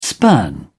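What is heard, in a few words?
"spurn", English, British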